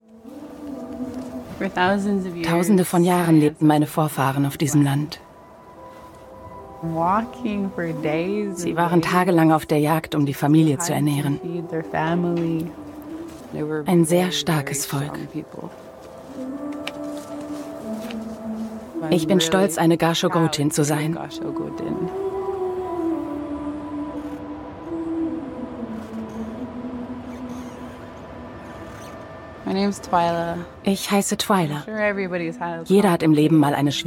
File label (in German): VO Intro; ARTE